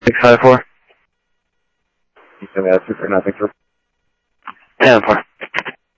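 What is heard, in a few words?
Sheriff East County, scanner audio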